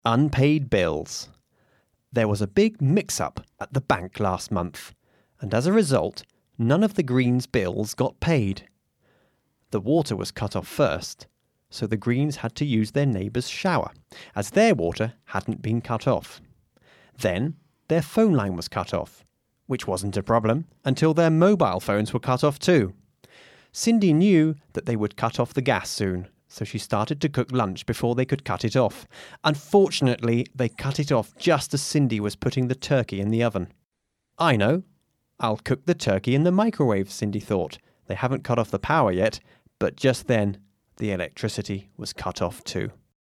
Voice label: Cut off. Dictation